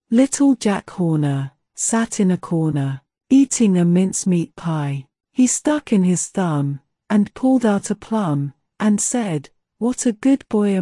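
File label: female brit; Little Jack Horner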